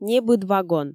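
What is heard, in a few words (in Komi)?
небыд вагон, Komi, female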